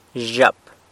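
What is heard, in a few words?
"zhyap", girl, San Lucas Quiaviní Zapotec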